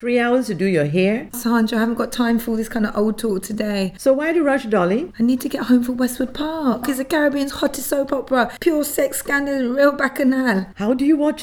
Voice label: advert